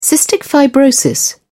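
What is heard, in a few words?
"cystic fibrosis", British English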